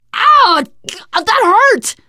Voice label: friendly fire, teen girl voice